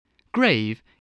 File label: "grave", spelling